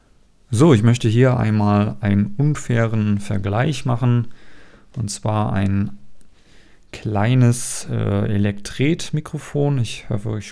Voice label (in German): mikrovergleich rode nt2a